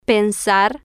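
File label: "pensar", Spanish, female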